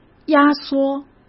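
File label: "压缩", Mandarin Chinese; hsk; chinese flashcards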